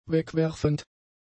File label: "wegwerfend", German; adjectives